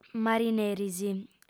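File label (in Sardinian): "marineris", Sardinian, female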